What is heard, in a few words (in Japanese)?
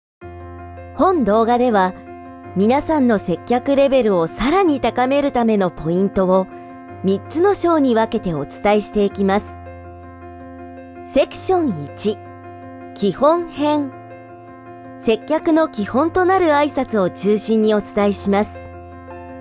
eラーニング